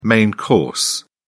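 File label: "main course", British English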